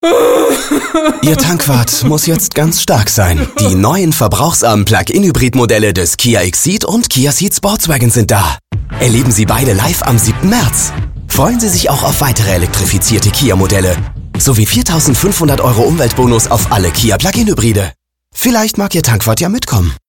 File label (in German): Radio Werbung; KIA